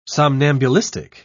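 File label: "somnambulistic", British English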